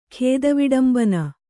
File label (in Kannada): "ಖೇದವಿಡಂಬನ", kannada